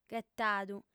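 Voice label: "ghetadu", Sardinian, female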